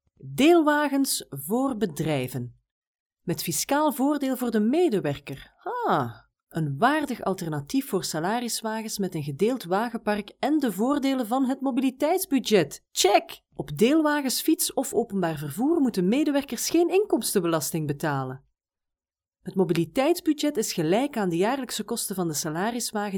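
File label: Flemish, female; voice over talent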